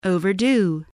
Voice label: "overdue", English voice

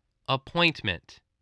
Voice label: "appointment", English

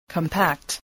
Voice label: "compact", english

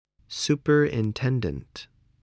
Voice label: "superintendent", spelling

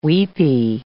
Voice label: "weepy", English

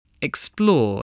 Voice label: "explore", voice

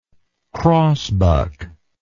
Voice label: "crossbuck", english

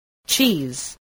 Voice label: "cheese", English, voice

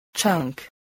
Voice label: "chunk", English, voice

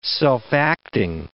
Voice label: "self-acting", English

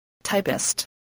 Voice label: "typist", English spelling voice

